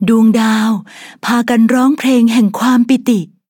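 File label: victory, Thai; Cookie Run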